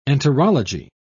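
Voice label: "enterology", British English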